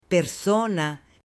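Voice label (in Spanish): "persona", Spanish, male; AppLenguaje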